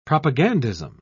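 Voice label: "propagandism", British English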